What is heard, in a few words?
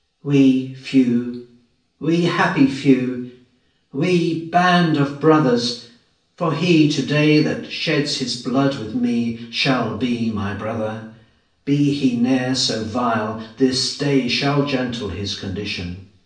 speech classroom; reverb demo